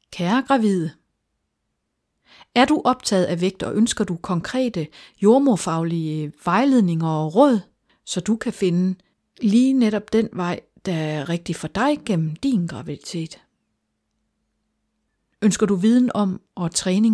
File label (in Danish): reklame